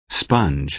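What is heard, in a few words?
"sponge", American English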